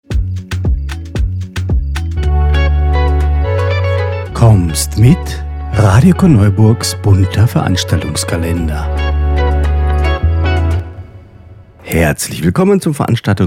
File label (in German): Lesung; Herr Kraehe muss zu seiner Frau